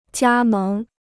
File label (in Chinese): "加盟", Chinese